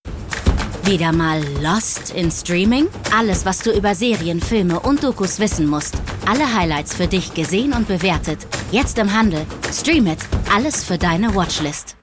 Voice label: Spots Off; StreamIt Heft

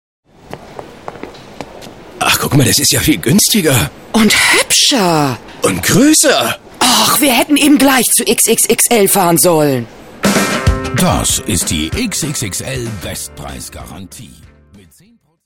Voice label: Norddeutsch, Dialekt, frauen; sprecher; XXXL

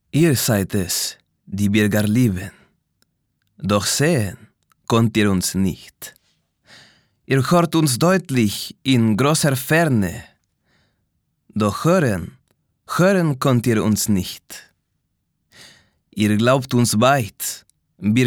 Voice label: spanischer Akzent, maenner

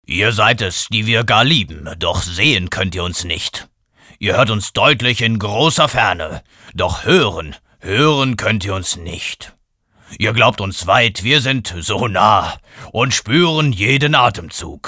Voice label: charge, maenner

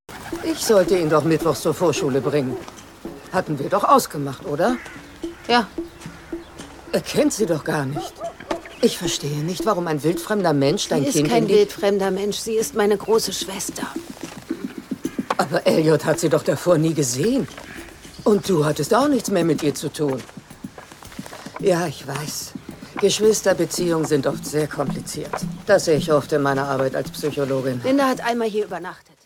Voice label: Danke es tut mir leid, Synchron, frauen

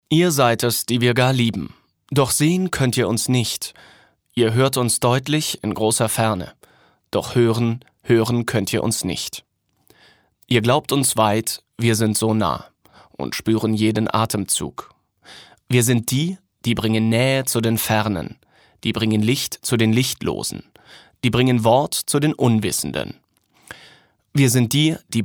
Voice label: sachlich, maenner; Sprecher

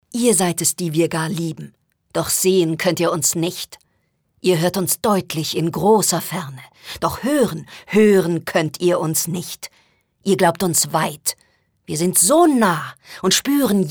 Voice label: aggressiv, frauen

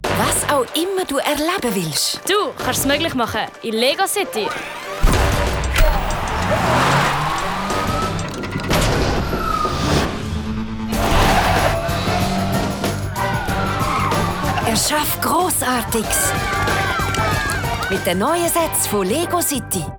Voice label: Spots OFF, frauen; LEGO CITY; Life Work

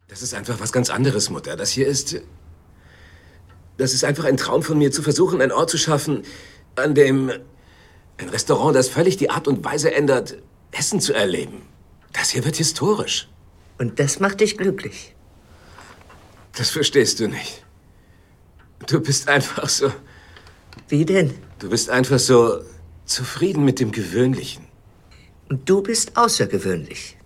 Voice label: Synchron, maenner

